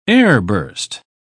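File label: "airburst", British English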